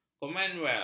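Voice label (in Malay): "komanwel", Malay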